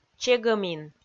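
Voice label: "чегӧмин", Komi, female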